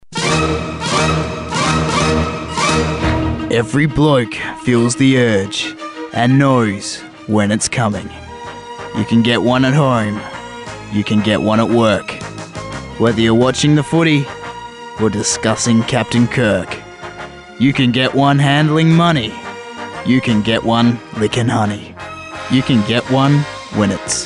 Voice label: commercial parody